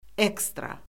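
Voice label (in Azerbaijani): "ekstra", Azerbaijani; Orfoqrafiya Lüğəti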